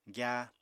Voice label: "gyáa", Apatani

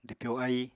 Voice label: "dwpyo aíi", Apatani

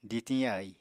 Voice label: "ditiñ yaì", Apatani

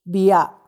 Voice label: "bia", horse, macuiltianguis

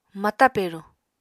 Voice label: "mata peruñ", Apatani

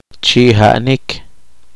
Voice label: poqomchi; acarrear agua

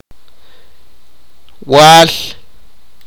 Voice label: sipakapense; son

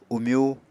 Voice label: "umyú", Apatani

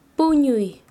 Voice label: Chanka, quechua; dormir

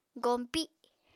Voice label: "goñpi", Apatani

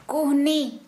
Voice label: Santali, elbow

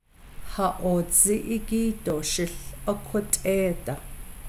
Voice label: I do not agree with what was said, navajo clauses

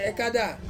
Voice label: "kada", to die, Ansus

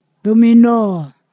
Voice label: "stand", Ponosakan; AF npst

